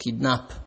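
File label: "kidnap", Nigerian Pidgin, male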